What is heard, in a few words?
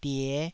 "die5", taiwanese syllable